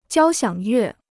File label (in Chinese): "交响乐", Chinese